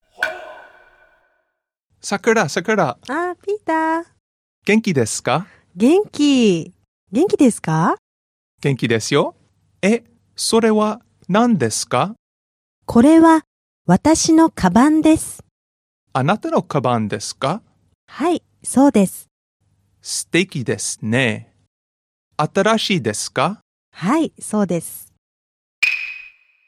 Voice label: dialog; JapanesePod101; Beginner Season 1